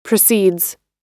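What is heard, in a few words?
"precedes", American English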